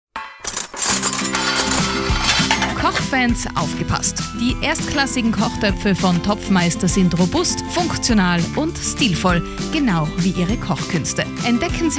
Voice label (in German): Werbespot; Topfmeister